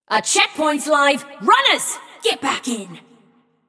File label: Checkpoint Opened, Announcer; Flux; Scraprun